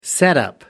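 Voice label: "setup", British English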